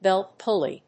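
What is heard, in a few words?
"belt pulley", English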